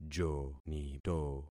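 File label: "jonito", concatenated syllables; Affixing experiment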